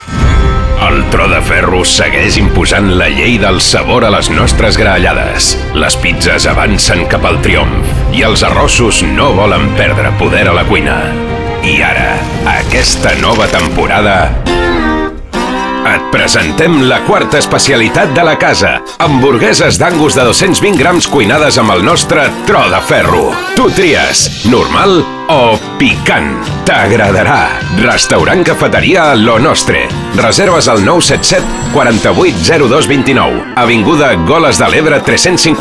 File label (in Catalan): ANUNCI RADIO mix Catala VO